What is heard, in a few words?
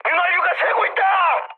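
Korean damaged oil mood high, speech; War Thunder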